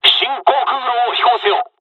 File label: dialogs japanese; War Thunder